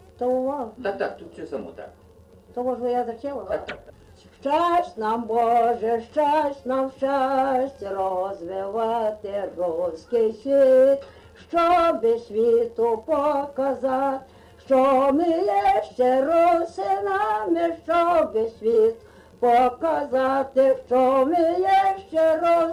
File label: chest man